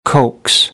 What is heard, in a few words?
"coax", American; AmericanBritish